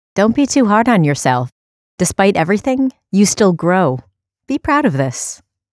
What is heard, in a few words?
Female; NIIT; Global Mental Health